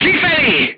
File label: voice message leave vehicle, crew tanks hungarian